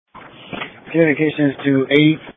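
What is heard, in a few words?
Police Patrol Dispatch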